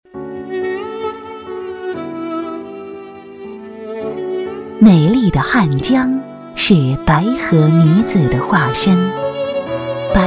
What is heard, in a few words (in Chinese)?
女 专题 茶艺; 宋家镇茶艺